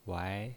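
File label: "wai", pinyin voice, second tone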